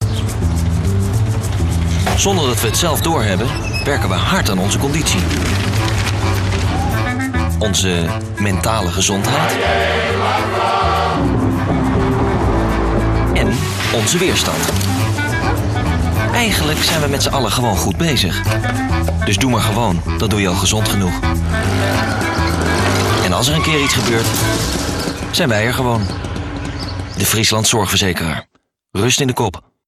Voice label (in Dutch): fris, stem voice-over; Stemkleuren